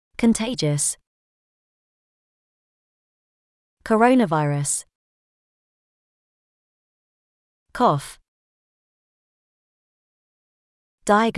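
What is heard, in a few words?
covid, British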